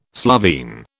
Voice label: "Slovene", British